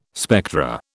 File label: "spectra", British